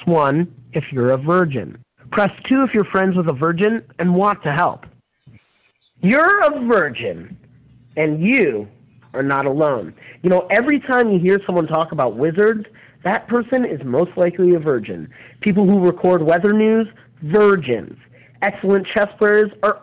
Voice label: call female